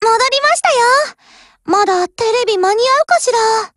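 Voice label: OPERATION OVER Japanese, Voice; GF Alarm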